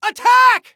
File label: Attack, Soldier Male; Soldier Male 01